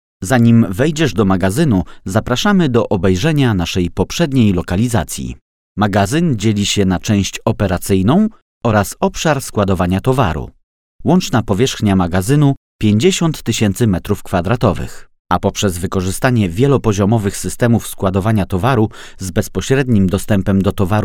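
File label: Polish, male, narration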